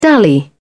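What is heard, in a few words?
"dally", British English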